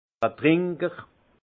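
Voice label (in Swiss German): "trinker", alsace Haut-Rhin; vin; buveur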